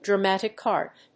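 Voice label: "dramatic art", English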